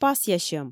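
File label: "пасъясьӧм", Komi, female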